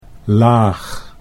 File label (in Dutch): "laag", Dutch; 'low' 'layer'